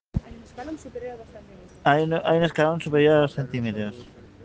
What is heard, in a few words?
Voice message